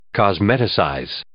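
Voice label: "cosmeticize", English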